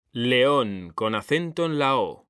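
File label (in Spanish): "león", Spanish, male; mekanta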